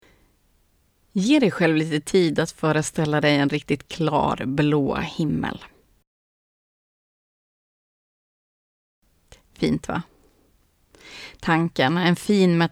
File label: Meditation Intro; Grund 1 Meditation